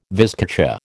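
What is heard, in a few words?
"vizcacha", American English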